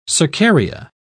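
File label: "cercaria", British English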